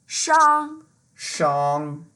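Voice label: "shang1", Mandarin Chinese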